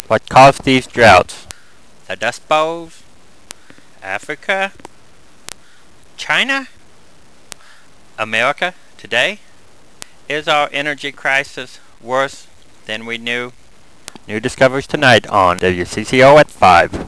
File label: Commercial